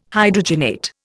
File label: "Hydrogenate", Indian